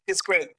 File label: Voice Acting, es